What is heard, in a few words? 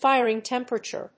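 "firing temperature", English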